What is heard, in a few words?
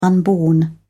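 "unborn", British English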